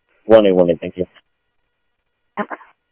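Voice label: Sheriff East County, scanner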